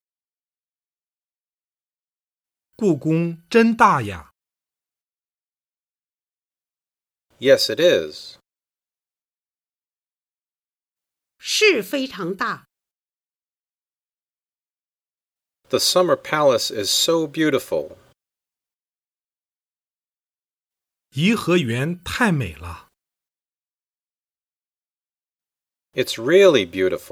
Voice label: Dialog; Chapter 13: Sightseeing